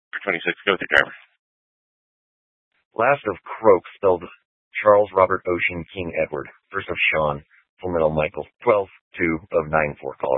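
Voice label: Troop 2A Dispatch, scanner audio recording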